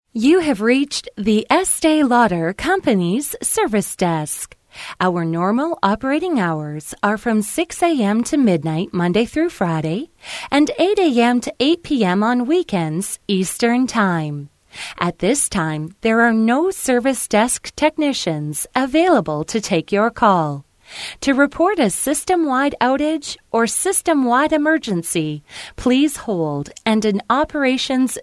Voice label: Voice Prompt